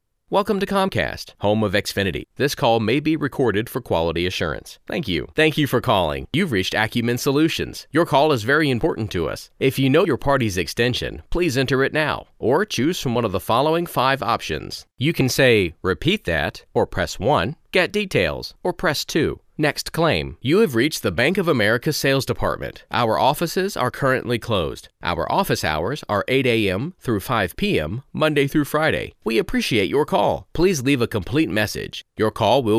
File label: IVR